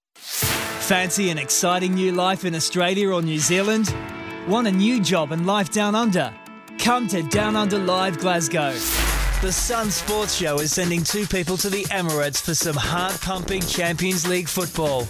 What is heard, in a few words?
australian male straight reads